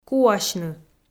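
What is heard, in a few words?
"куасьны", Komi, female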